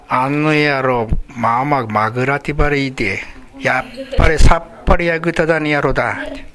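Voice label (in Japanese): "magurau", 南山形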